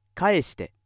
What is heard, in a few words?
male